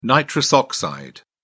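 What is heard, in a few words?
"nitrous oxide", British English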